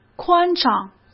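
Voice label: "宽敞", Mandarin Chinese; chinese flashcards; hsk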